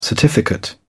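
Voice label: "certificate", British English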